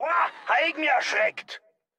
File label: german quotes; starcraft 2; scv